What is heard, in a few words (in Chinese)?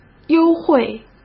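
"优惠", Mandarin Chinese; hsk; chinese-flashcards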